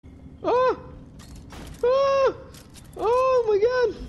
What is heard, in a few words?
oh my god high pitch